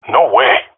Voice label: negative, jungle male epic; player vo; Counter Strike Model Pack; CS:GO